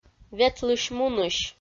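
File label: "ветлысь-мунысь", Komi, female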